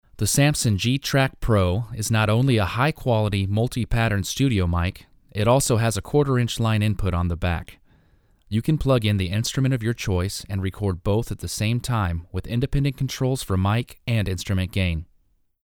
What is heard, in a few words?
Voc Samson GTrack Fig8, usb mic